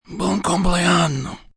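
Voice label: Birthday Italian male, Occaison, event, male, foreign, Italian; Holiday; Voice Box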